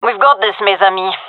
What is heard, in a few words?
round start, gendarmerie fem; vo